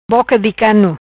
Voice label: "Boca Di Cano", Macanese; glutton

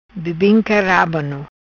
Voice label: "Bebinca Rabano", patua

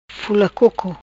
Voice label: "Fula Coco", Macanese

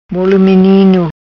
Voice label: "Bolo Minino", Macanese

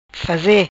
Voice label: "Faze", Macanese

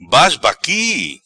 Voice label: "Basbaqui", Macanese; Palerma, embascado; Foolish, gawping

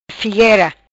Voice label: "Figuera", Macanese

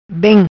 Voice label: "Bem", Macanese; Well

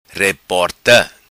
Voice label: "Reporta", patua

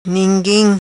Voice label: "Ninguim", Macanese

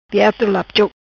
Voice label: "Biato Lap Choc", Macanese

